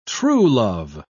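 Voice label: "truelove", British English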